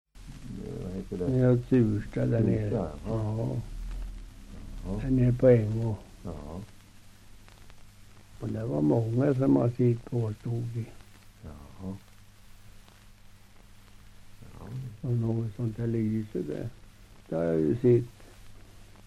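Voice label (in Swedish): Tystberga Bälinge Södermanland, dialekter; Folkminnen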